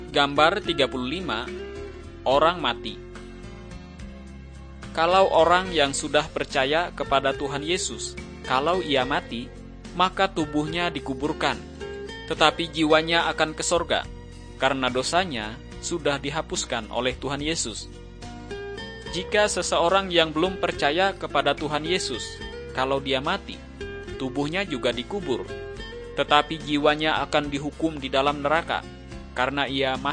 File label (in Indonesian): kabar baik good news bahasa indonesia